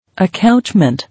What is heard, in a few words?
"Accouchement", English